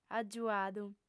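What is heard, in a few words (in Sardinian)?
"agiuadu", lingua sarda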